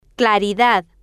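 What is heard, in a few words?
"claridad", Spanish, female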